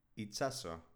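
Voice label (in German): "itsaso", baskische Mädchennamen, Aussprache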